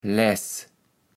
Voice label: "Läß", kaevels platt

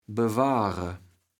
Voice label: "beware", kaevels platt

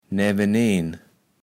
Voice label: "näweneen", kaevels platt

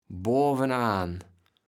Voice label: "boawenaan", kaevels platt

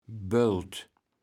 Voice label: "Bölt", kaevels platt

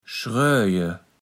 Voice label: "schrööje", Platt

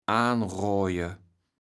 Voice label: "aanroaje", kaevels platt

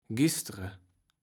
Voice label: "gistre", kaevels platt

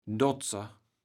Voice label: "Dotser", kaevels platt

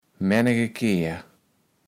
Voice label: "männegekehr", kaevels platt

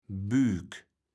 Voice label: "Büük", kaevels platt

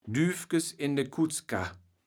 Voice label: "Düfkes in de Kuttskar", kaevels platt

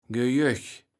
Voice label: "Gejöch", Platt

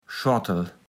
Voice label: "Schortel", platt

